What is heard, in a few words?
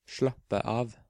"Slappe av", Norwegian